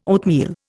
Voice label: "oatmeal", Indian